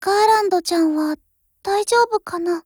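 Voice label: Japanese; M1A1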